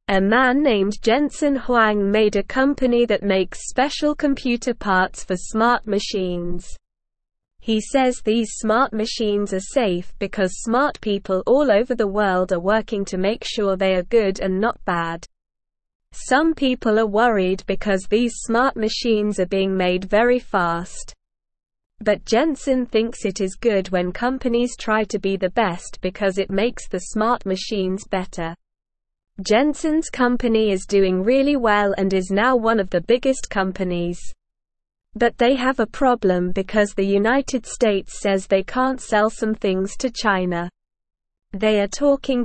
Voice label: English Newsroom Beginner SLOW Reading; Jensen Huangs Company Makes Smart Machines for Everyone

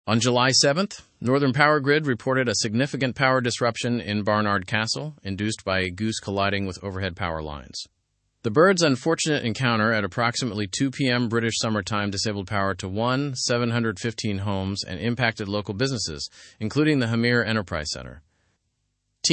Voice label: learn english through news advanced fast; goose disrupts power supply in barnard castle

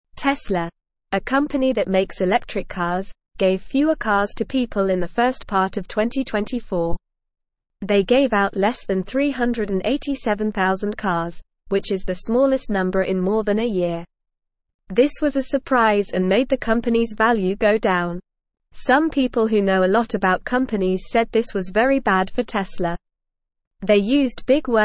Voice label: English Beginner NORMAL Reading; English Newsroom; Tesla Gives Fewer Cars Company Value Goes Down

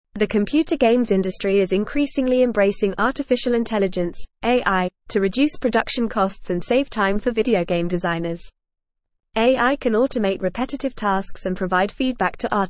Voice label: English Advanced FAST Reading; AI Revolutionizing Computer Games Cost Reduction Creativity E

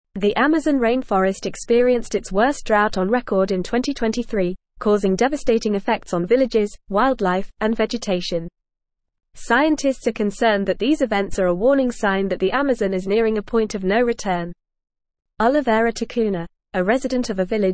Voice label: English Newsroom Advanced FAST Reading; Amazon Rainforest Faces Tipping Point as Drought Worsens

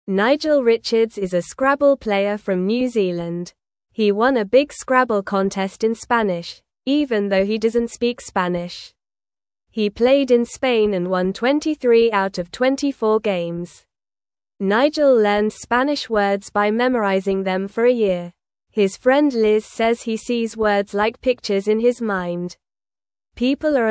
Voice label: English Newsroom Beginner NORMAL Reading; Nigel Wins Scrabble Contest Without Speaking Spanish

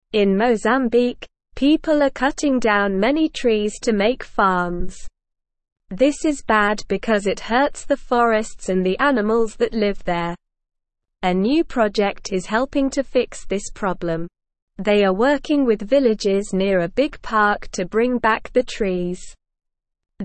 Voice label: English SLOW Reading; Helping Mozambiques Forests Bringing Back the Trees

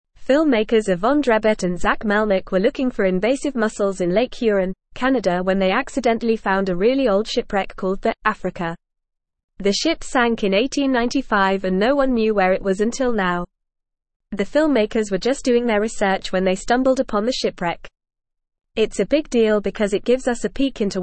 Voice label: Upper Intermediate FAST Reading, English; English Newsroom

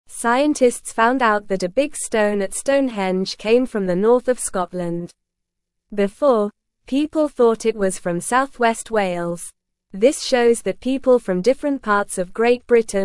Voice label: English news reading, lower intermediate, normal; Big Stone at Stonehenge Came from Scotland Not Wales; English Newsroom

